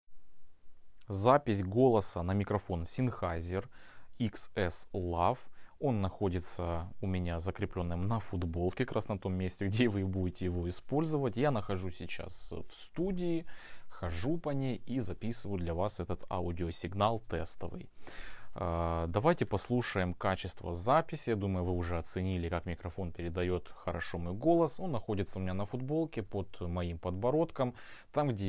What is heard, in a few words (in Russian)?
test v komnate; Sennheiser XS Lav; Тест в комнате